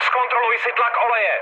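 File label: damaged oil, Czech, mood med; speech; War Thunder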